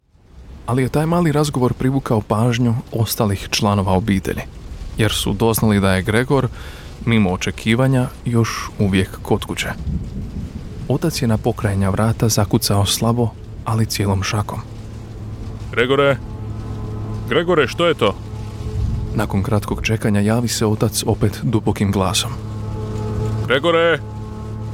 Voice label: croatian voiceover audiobook

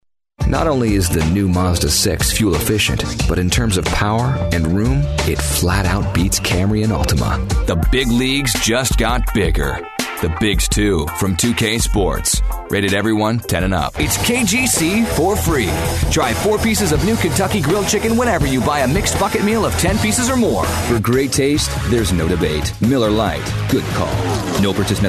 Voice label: american commercials

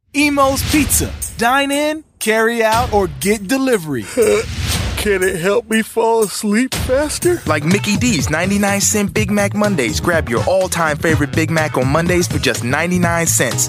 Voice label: american voiceover commercial

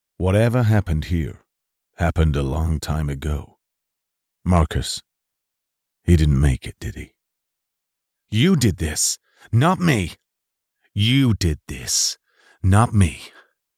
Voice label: character

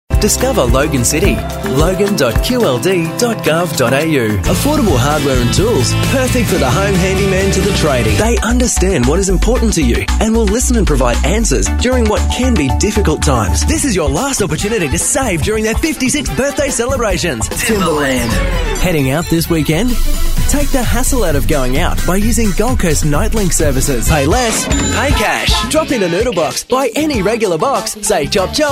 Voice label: young aussie commercial

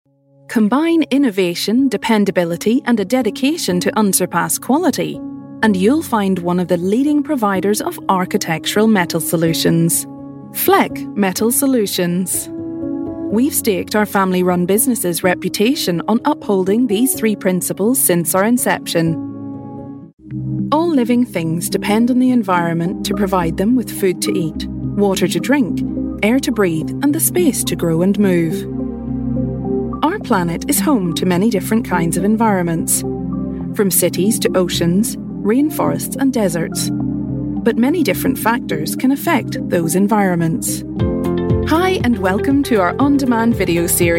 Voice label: northern irish voice, corporate

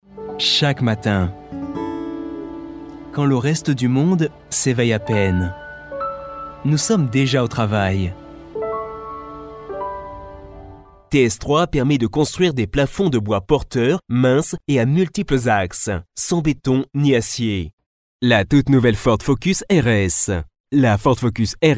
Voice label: french male, montage

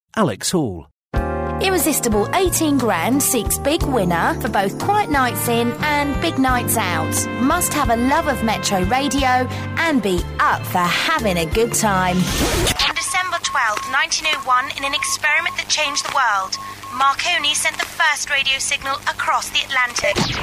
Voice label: character

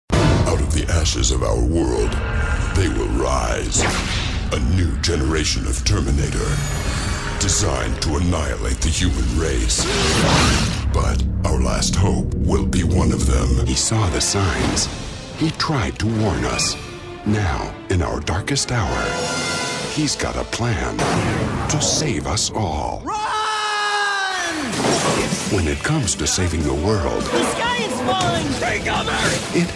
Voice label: american film trailer mix

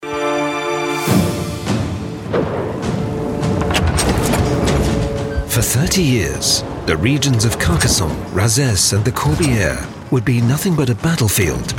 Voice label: documentary

